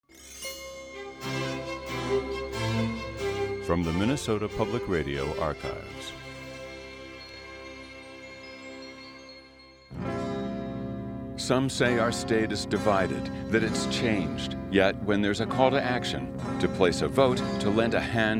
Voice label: Male Promo

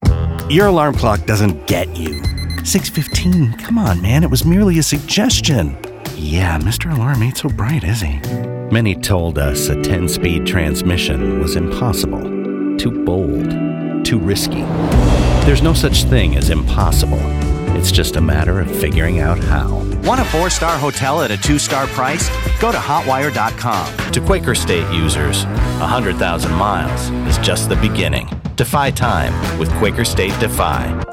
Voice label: Commercial

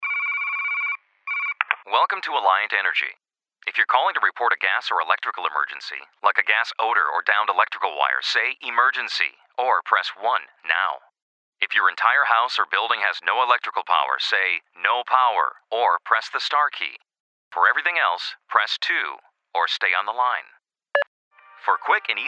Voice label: audio ivr; voices

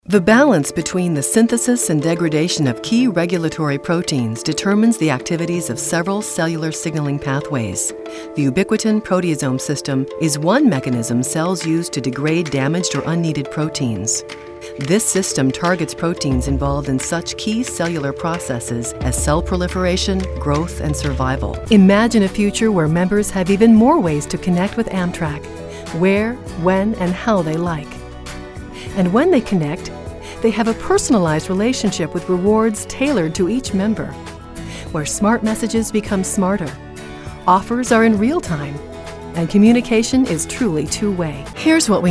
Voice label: Narrative

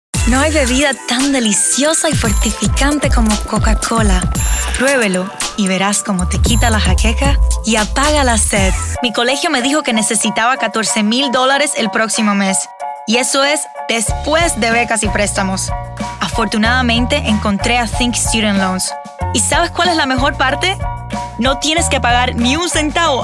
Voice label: Female Commercial Spanish

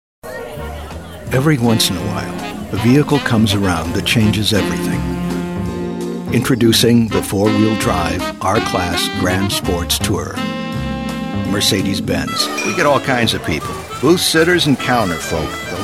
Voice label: commercial

